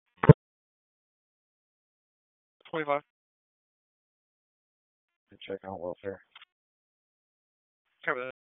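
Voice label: Police Northeast District Disp, radio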